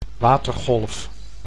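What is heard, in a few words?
"watergolf", Dutch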